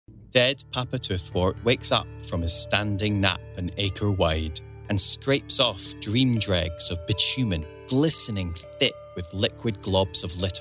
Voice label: narration, voices